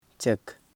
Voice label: vagina, Koro